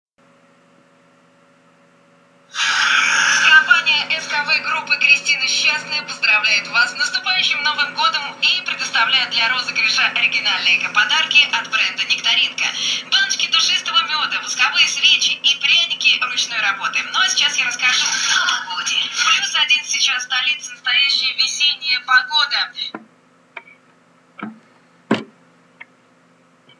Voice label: radio; SKV Group i Nektarinka